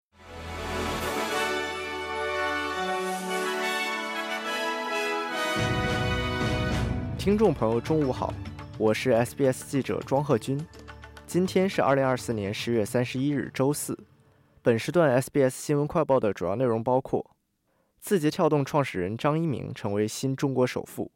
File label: mandarin news flash; 字节跳动创始人张一鸣成为中国新首富; SBS Chinese; SBS新闻快报